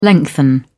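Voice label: "lengthen", British English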